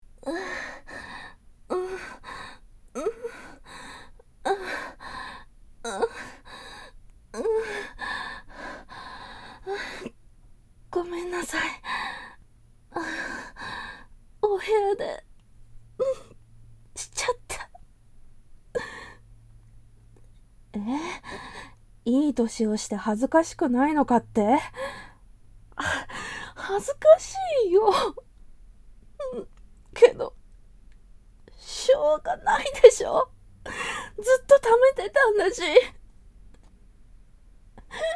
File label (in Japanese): ボイスのみ